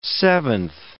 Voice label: "seventh", English